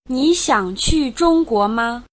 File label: Mandarin Conversation